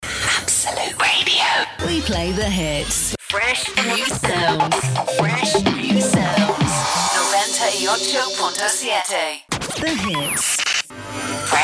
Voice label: imaging

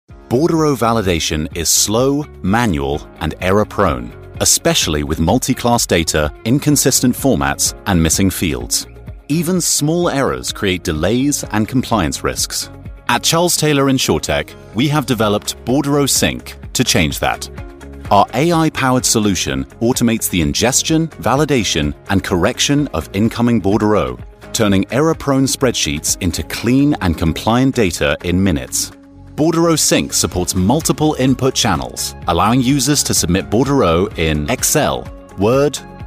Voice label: british voiceover corporate

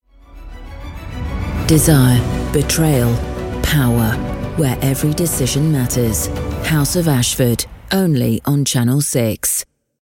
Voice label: dramatic read promos commercials

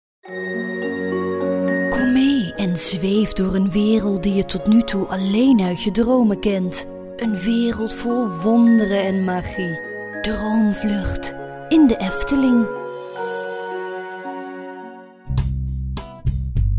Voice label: commercial, Dutch Female Voiceover

